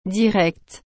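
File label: "direct", French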